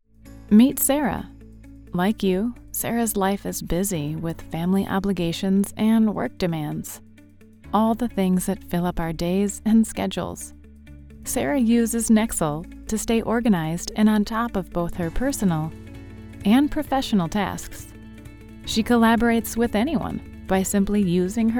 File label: explainer video